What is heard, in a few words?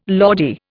"Lordy", Indian